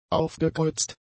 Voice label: "aufgekreuzt", German; adjectives